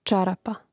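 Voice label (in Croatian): "čarapa", Hrvatski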